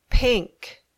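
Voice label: "pink", English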